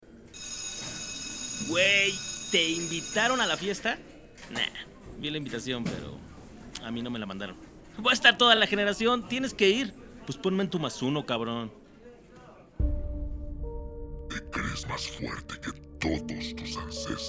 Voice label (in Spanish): Doblaje Actuacion Tonos Sentimientos; voiceover demo